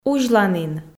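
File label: "узьланін", Komi, female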